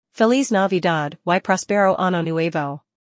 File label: Merry christmas and happy new year in spanish